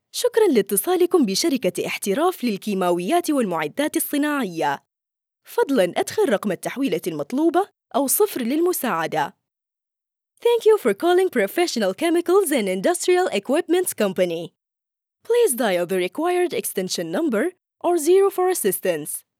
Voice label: MSA Saudi IVR, voiceover